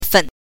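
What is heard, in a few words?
"fen", Mandarin Chinese pinyin, neutral tone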